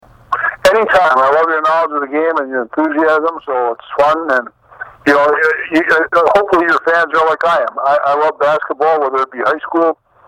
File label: promo